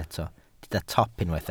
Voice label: "top", Welsh